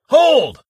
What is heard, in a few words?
hold, Male; Kenney's Sound Pack; Voiceover